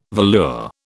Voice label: "velour", British

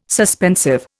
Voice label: "suspensive", Indian

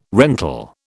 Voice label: "rental", British

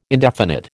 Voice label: "indefinite", American

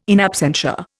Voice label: "in absentia", Indian

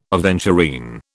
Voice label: "aventurine", British

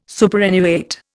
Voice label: "superannuate", Indian

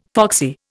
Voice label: "foxy", Indian

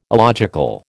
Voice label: "illogical", American

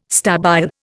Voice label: "stabile", Indian

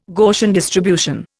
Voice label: "Gaussian distribution", Indian

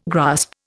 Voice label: "grasp", Indian

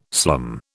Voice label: "slum", British

